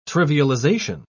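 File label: "trivialization", British English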